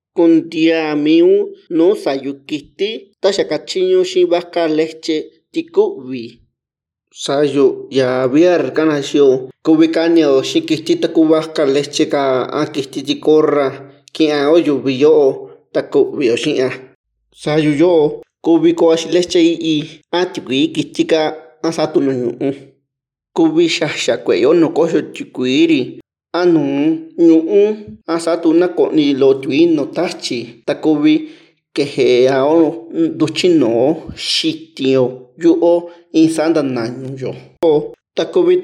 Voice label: Mixteco; h5n1-bird-flu-resources